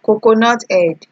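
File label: "coconut head", Nigerian Pidgin, female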